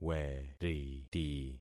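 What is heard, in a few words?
"weriti", concatenated syllables; Affixing experiment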